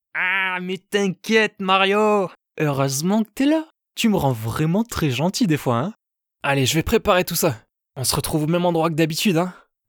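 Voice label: French Character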